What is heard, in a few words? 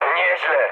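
trick mood med, speech Polish; War Thunder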